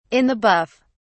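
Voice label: "in the buff", British English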